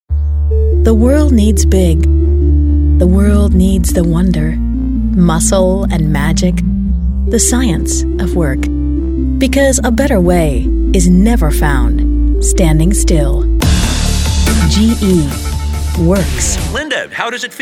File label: The Natural Voice